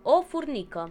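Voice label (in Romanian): ant desc romanian; insects; cartoon; tuxpaint-stamps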